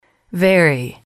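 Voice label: "very", English, female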